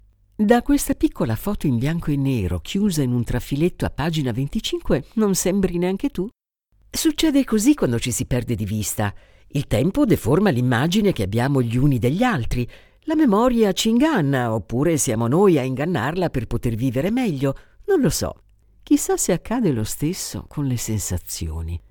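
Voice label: Italian, Audiobook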